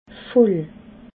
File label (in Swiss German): "voll", alsace